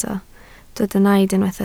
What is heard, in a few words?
"naid", Welsh